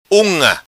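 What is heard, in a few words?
"Unga", patua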